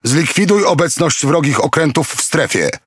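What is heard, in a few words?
TRANSPORTS IN PORT, Languages PL; PVE; World Of Warships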